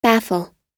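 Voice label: "baffle", American English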